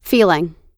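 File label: "feeling", American English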